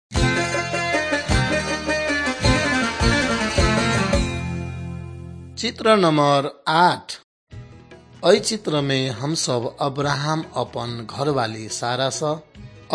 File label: maithili thetiya; wycliffe bible translators inc nt drama; banhiyaa khabar good news